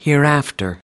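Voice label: "hereafter", American English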